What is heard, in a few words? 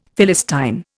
"Philistine", Indian; Dictionary